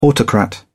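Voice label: "autocrat", British English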